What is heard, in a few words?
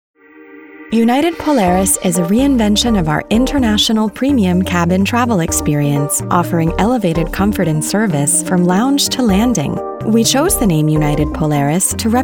Narration